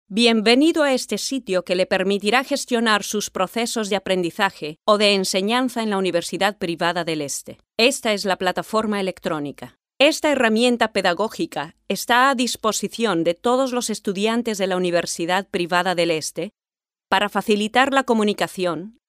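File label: Spanish F MULTIMEDIA; ESP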